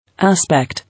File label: "Aspect", English